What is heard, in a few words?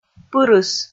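"пырыс", Komi, female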